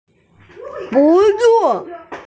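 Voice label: "no need", Mandarin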